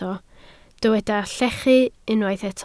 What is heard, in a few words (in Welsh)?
"llechu", Welsh